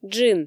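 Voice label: "джин", Komi, female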